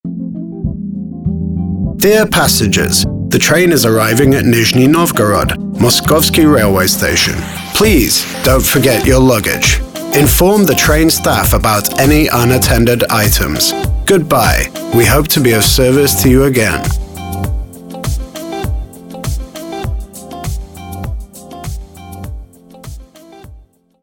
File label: M IVR voice